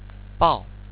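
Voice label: "bao", Chinese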